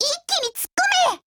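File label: ATTACK, Japanese; MP446 Mod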